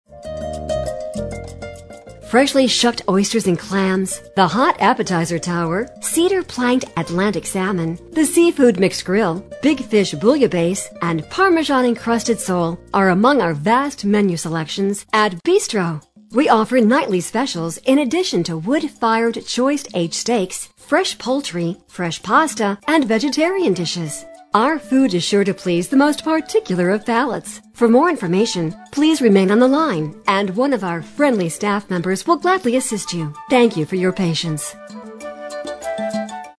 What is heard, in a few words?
Restaurant Female On Hold